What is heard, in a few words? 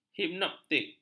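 "hipnotik", Malay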